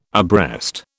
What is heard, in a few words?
"abreast", British